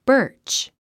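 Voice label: "birch", English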